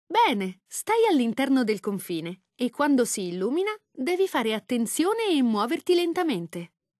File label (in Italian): lang it it, voiceovers